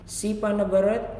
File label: "Sit", Nalik